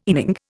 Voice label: "inning", Indian